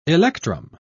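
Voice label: "electrum", British English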